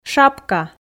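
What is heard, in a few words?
"шапка", Komi, female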